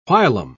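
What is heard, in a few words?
"whilom", British English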